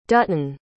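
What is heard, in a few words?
"dutton", English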